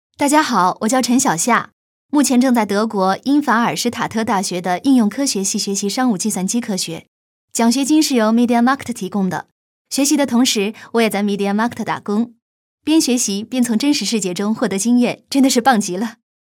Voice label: VOICES ENGLISH Male Adult; KERSOUND